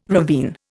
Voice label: "ravine", Indian